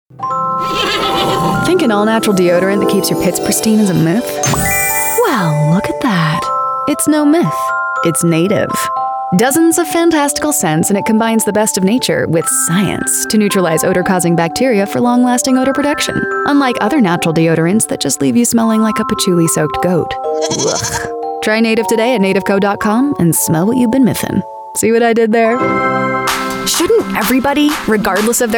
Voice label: commercial; commercial-demo; female voice